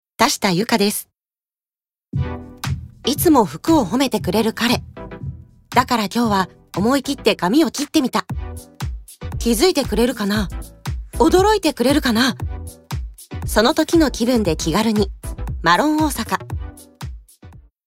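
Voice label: commercial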